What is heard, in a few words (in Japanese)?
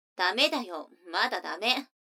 女の子台詞